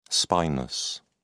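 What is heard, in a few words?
"spinous", British English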